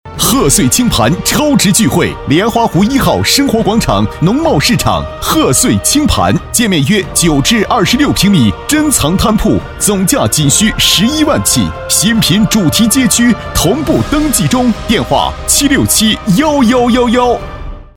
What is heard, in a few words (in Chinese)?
男-广告-房产-大气激情